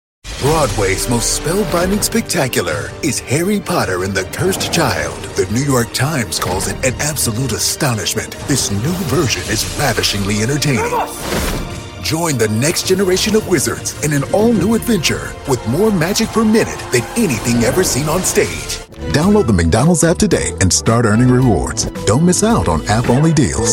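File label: American English, Commercials; voice demos; GoLocalise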